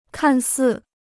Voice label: "看似", Chinese

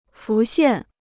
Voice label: "浮现", Chinese

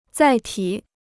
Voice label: "载体", Chinese

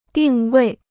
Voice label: "定位", Chinese

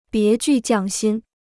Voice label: "别具匠心", Chinese

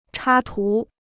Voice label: "插图", Chinese

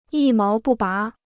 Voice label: "一毛不拔", Chinese

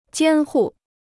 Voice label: "监护", Chinese

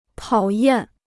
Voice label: "讨厌", Chinese

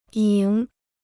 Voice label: "赢", Chinese

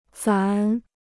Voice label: "咱", Chinese

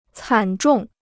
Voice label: "惨重", Chinese

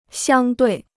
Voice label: "相对", Chinese

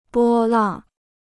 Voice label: "波浪", Chinese

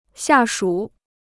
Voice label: "下属", Chinese

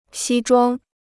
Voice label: "西装", Chinese

